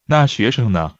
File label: Mandarin Conversation